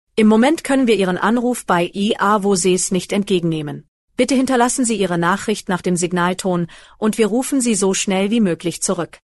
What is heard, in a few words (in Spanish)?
Tono profesional, Voz mujer con I.A., Locución en alemán y tono profesional; Ava